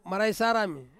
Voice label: "maraisarami", Ansus; freshwater eel